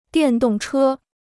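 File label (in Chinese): "电动车", Chinese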